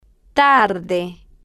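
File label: "tarde", Spanish, slow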